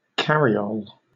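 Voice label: "cariole", British English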